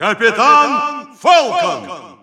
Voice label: Russian Announcer; Captain Falcon; SSBU